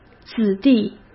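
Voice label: "子弟", Mandarin Chinese; hsk; chinese-flashcards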